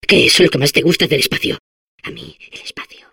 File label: Space core babble, es; ¿Qué es lo que más te gusta del espacio? A mí, el espacio.; Líneas de voz de los núcleos